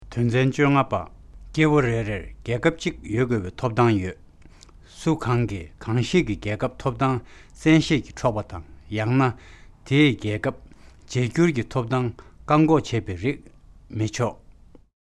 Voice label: Tibetan